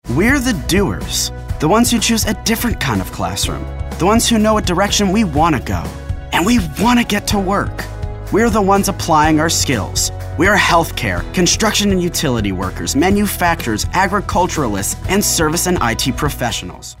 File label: motivational